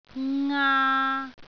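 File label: "ngaa3", Cantonese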